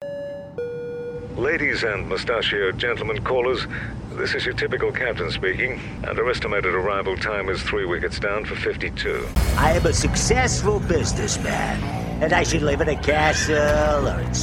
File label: male English Scottish multiple accents character voices showreel